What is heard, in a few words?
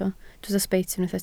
"sbeit", Welsh